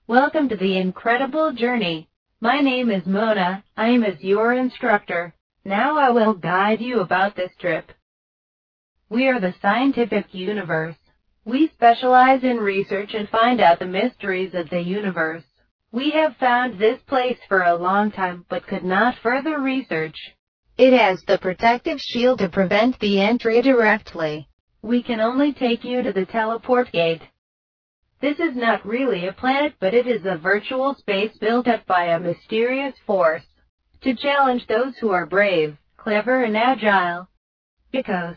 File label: com speaker